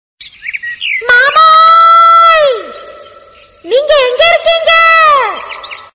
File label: tamil comedy; ringtone